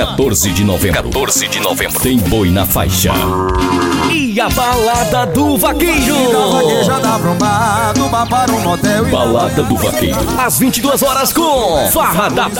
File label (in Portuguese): spot vaquejada; vaquejada em Picuí